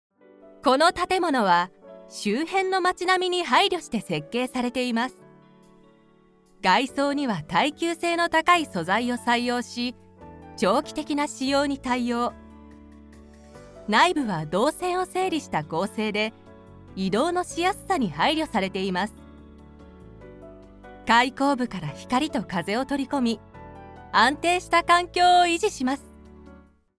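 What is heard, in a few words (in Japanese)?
NAサンプル, 声優・ナレーター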